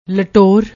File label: "latour", Punjabi